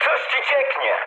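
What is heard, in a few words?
tank damaged, mood high, Polish speech; War Thunder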